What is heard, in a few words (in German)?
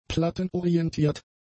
"plattenorientiert", German; adjectives